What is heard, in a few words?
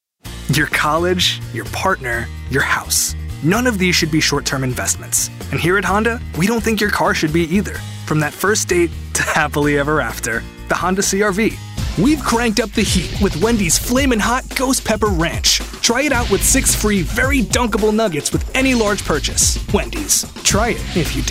Commercial